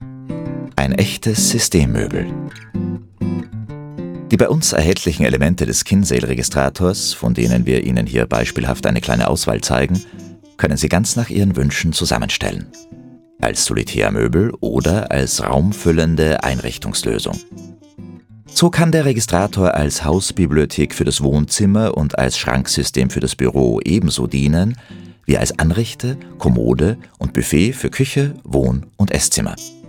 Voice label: corporate; registrator